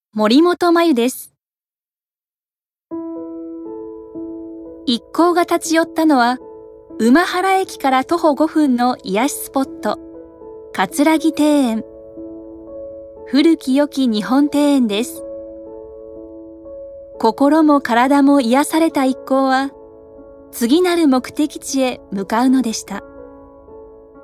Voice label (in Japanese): ノーマル